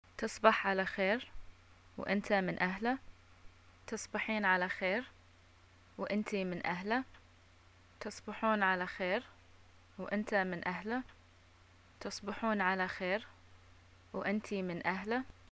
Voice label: greeting night, arabic